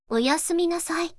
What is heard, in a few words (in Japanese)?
四国めたん（セクシー） おやすみなさい